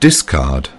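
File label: "Discard", British English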